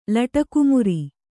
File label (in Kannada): "ಲಟಕುಮುರಿ", Kannada